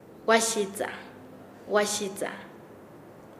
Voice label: Nasa Yuwe; entiesar; paez